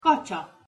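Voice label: "kacsa", Hungarian pronunciation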